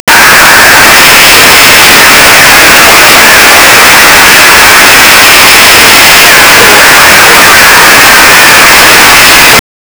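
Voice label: overlapping news broadcasts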